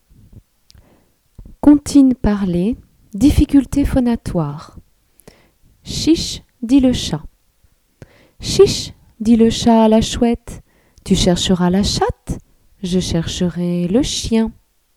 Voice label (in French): Chiche Dit Le Chat Comptines Parlees Difficultes Phonatoires